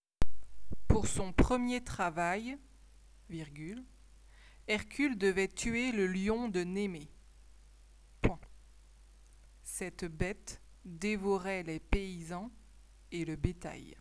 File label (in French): dictee